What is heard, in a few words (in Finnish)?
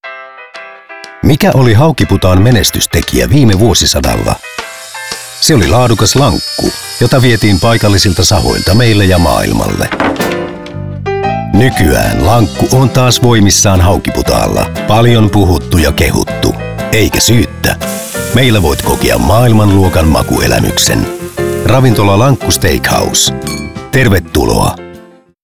radio; lankku steakhouse